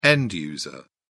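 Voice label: "end user", British English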